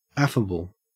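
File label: "affable", Australian English; pronunciations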